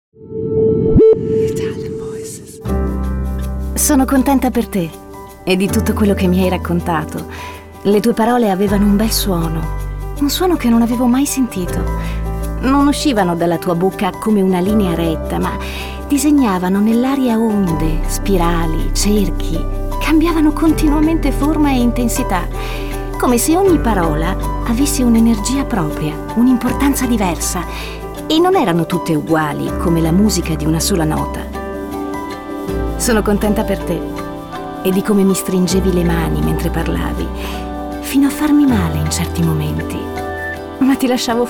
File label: ITALIAN VOICES; Naked Reel